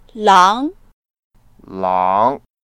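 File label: "lang2", Mandarin Chinese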